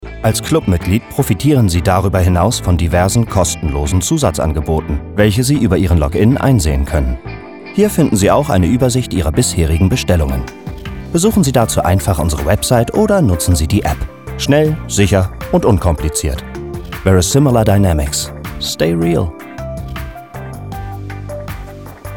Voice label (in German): Erklaerfilm; Clubmitgliedschaft